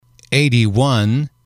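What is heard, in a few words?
"eighty one", English, male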